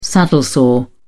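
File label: "saddle-sore", English, British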